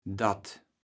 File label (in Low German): "dat", kaevels platt